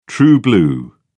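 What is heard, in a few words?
"true-blue", British English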